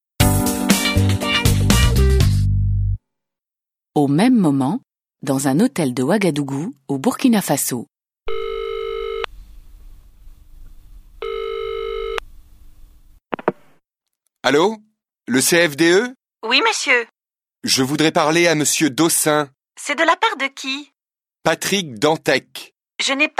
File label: French